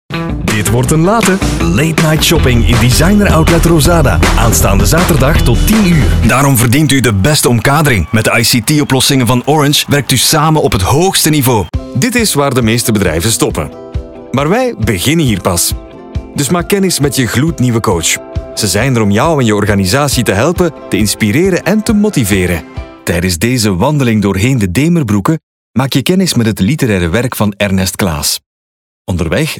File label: voiceover